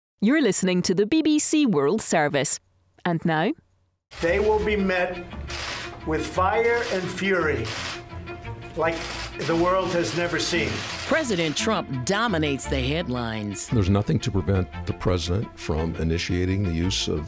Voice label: promo; pillars of trump